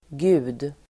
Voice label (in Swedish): "gud", Swedish